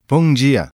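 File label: Portuguese male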